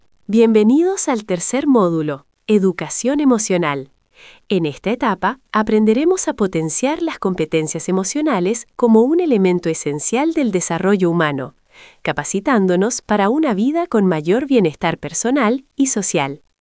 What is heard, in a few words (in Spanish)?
locucion